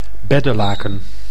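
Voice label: "beddelaken", Dutch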